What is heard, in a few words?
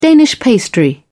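"danish pastry", British English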